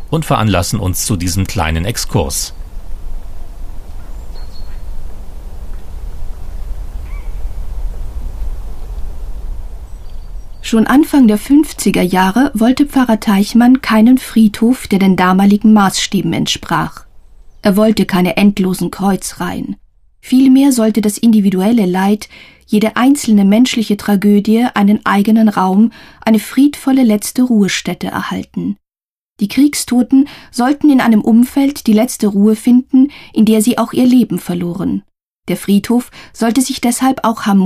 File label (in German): Audioguide Waldfriedhof Halbe